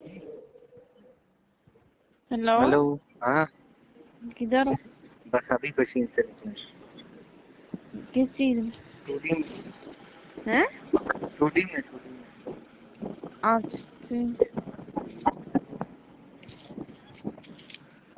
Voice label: Call Recordings